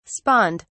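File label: "spawned", British English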